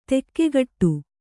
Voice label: "ದಿಕ್ಕುಗೇಡಿ", Kannada